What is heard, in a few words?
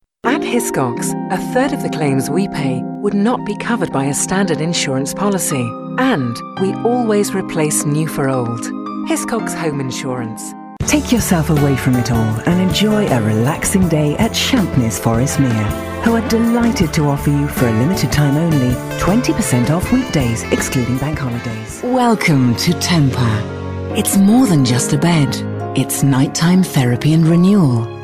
commercial